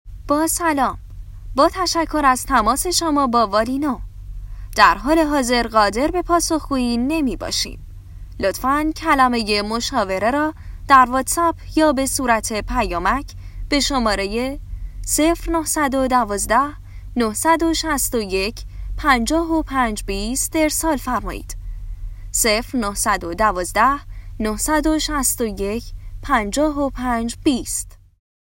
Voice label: narrator